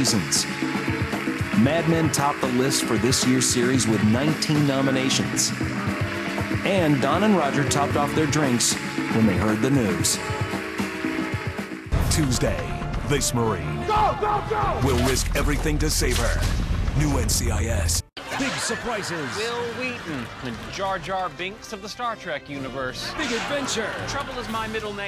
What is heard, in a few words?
live announce; show and stadium announcers